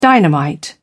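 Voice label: "dynamite", British English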